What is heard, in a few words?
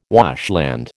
"washland", American